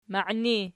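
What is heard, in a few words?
"maini" nominative, Archi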